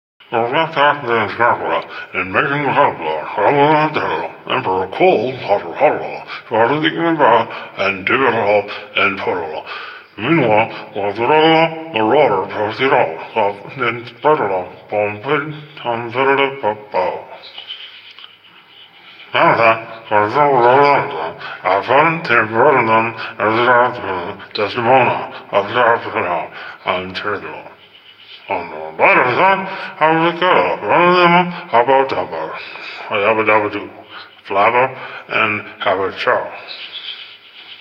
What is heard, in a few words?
Newscaster Loop; TPN